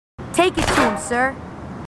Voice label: marines female, marine tough